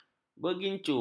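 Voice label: "bergincu", Malay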